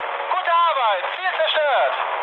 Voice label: bomb success, mood med, speech ge; War Thunder